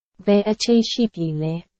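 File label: Burmese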